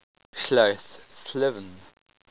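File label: "llaeth", Welsh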